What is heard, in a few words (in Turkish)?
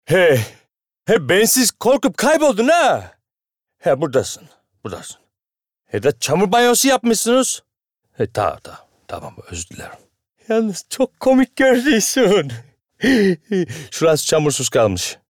Lehce Karadeniz